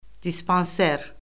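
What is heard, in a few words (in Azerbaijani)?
"dispanser", Azerbaijani; Orfoqrafiya Lüğəti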